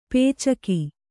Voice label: "ಪೈಚುಕೆ", kannada

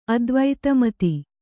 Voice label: "ಅದ್ವೈತಮತಿ", Kannada

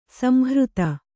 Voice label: "ಸಂಹೃತ", Kannada; samhřta; noun

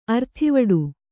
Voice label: "ಅರ್ಥಿವಡು", Kannada; arthivaḍu; (Verb); to love; to love to have; to desire; to enjoy

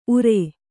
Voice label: "ಊರ್", Kannada

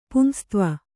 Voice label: "ಪುಂಸತ್ವ", kannada

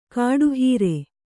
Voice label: "ಕಡೆಹರಿ", Kannada

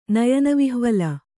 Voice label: "ನಯನವಿಹ್ವಲ", Kannada

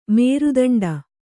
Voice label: "ಮೇರುದಂಡ", Kannada

